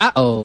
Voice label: uh oh; ooh ahh